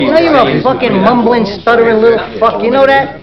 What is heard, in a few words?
mumbling; halflife